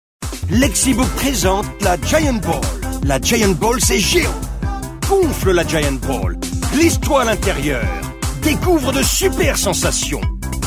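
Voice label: French male TV commercial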